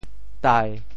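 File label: "tai1", Teochew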